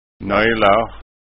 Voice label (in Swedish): "nojlar", skanska